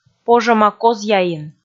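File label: "пожӧма-козъяин", Komi, female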